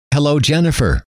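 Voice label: hello, male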